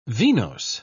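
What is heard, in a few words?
"venose", British English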